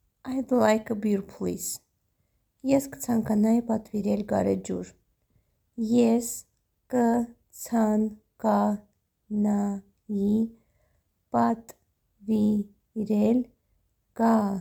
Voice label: Id like a beer please Armenian Es ktsankanayi patvirel garejur